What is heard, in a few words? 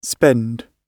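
"spend", British English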